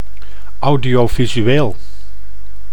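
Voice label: "audiovisueel", Dutch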